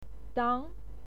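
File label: "diang" second tone, pinyin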